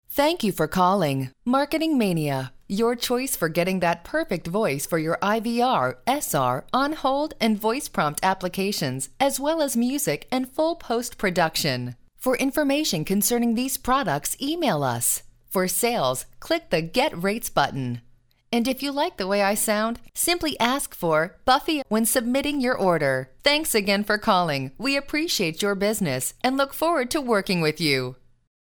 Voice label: Voice Sample, onhold

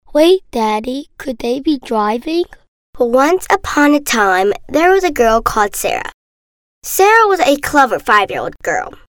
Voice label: girl; kid

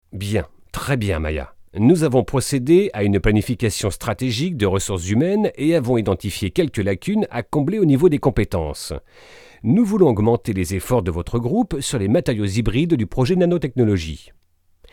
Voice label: French

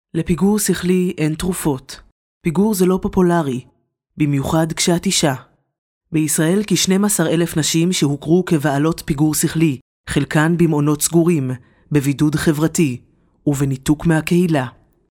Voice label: Hebrew; foreign languages

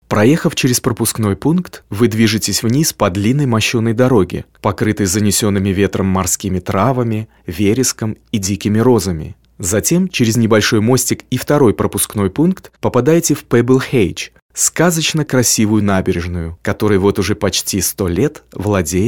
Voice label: Russian

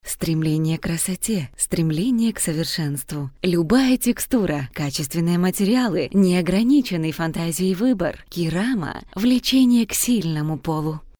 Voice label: Russian

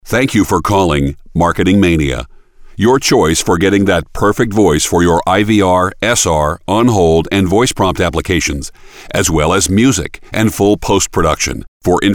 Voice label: Voice Sample, onhold

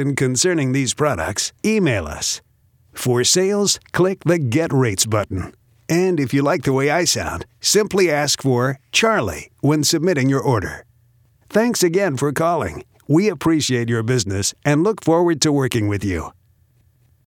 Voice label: Voice Sample, onhold

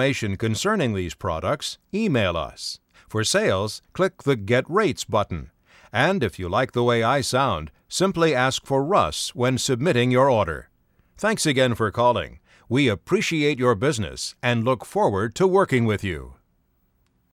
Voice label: Voice Sample, onhold

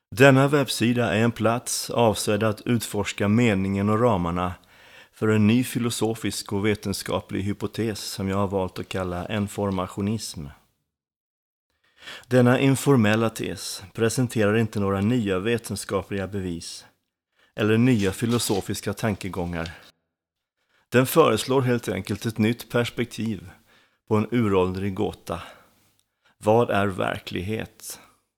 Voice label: Swedish; foreign languages

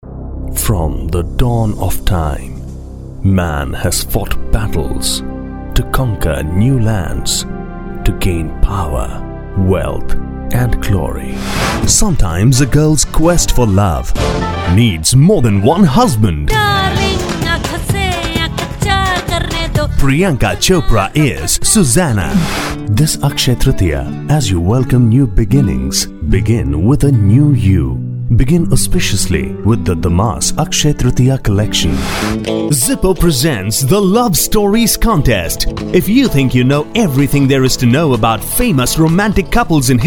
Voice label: Indian English; foreign languages

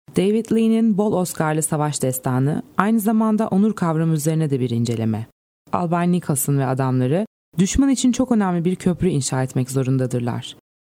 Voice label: Turkish; foreign languages

